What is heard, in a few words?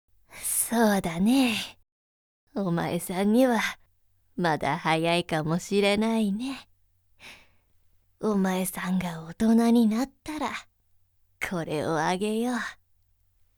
woman rouba